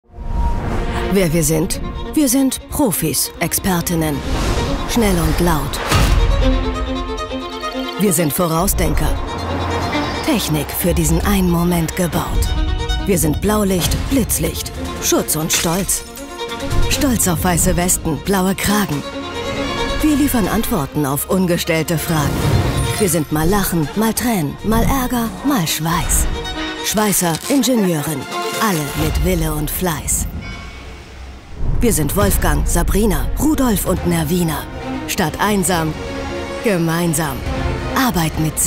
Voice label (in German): Recruitingfilm; rosenbauer